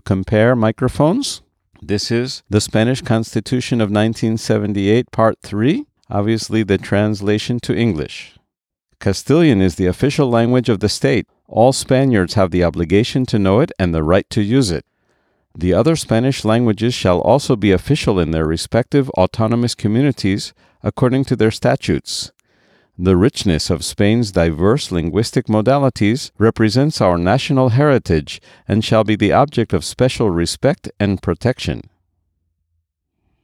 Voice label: Sennheiser Hand Mic Digital